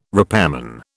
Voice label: "repairman", British

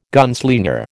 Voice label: "gunslinger", American

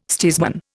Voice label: "steersman", Indian

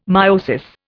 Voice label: "meiosis", Indian

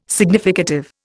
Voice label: "significative", Indian

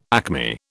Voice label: "acme", British

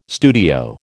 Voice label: "studio", American

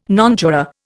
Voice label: "nonjuror", Indian

